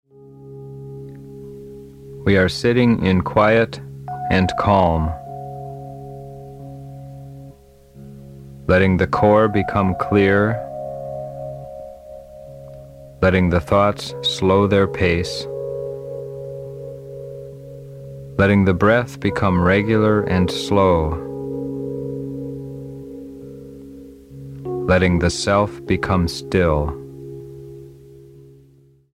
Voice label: Spiritual Centering Male